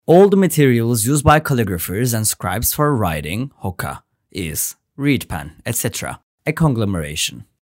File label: english